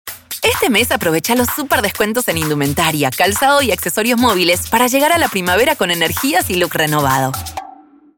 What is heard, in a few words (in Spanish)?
Energico Sonreido Energetic Smiling AR